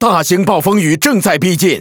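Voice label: Speech Alarms Storm, Chinese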